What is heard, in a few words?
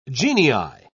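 "genii", British English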